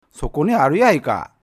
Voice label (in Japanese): man, sokoniaru; hogen